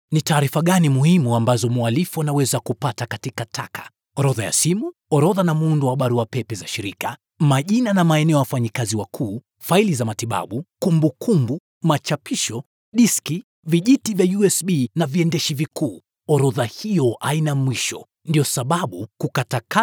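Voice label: Swahili, male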